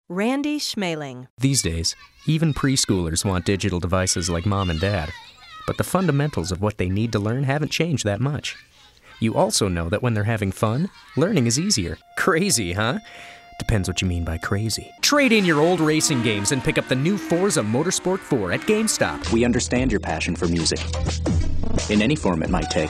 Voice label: male commercial

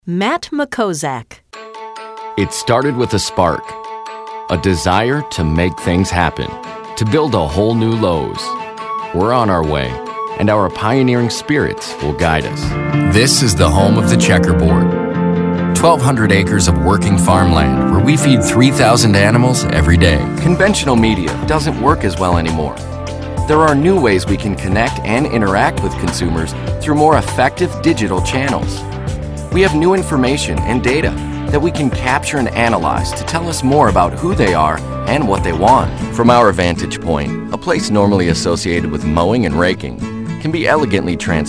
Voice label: male narration

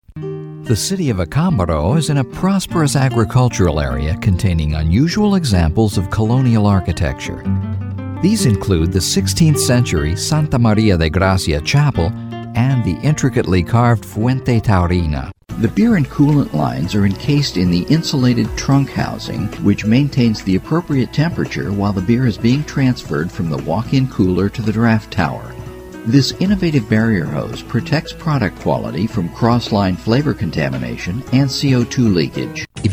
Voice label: Narration

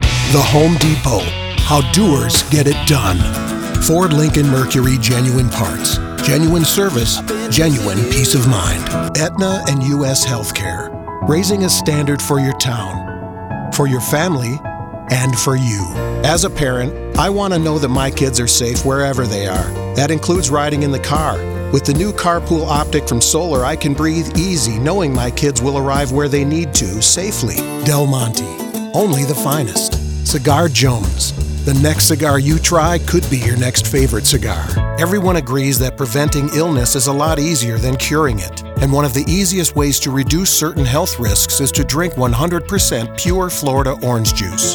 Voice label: Male, commercial

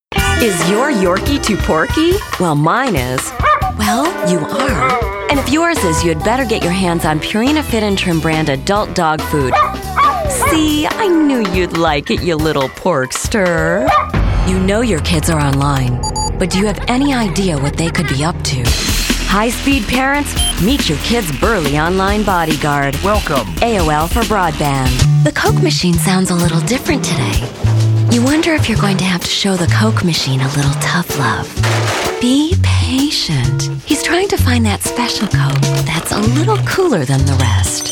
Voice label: commercials

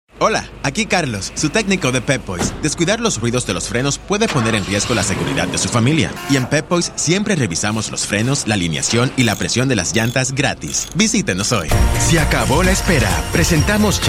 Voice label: Spanish

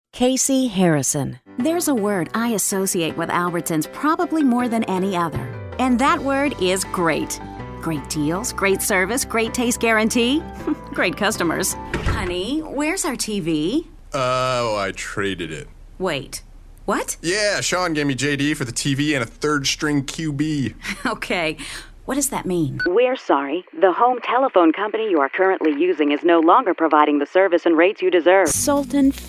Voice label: Commercial

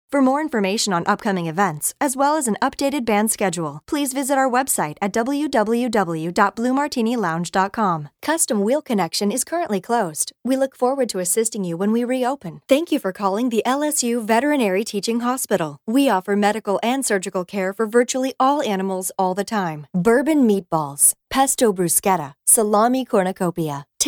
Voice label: IVR

